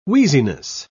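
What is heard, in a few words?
"wheeziness", British English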